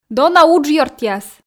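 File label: "дона уджъёртъяс", Komi, female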